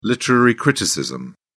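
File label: "literary criticism", British English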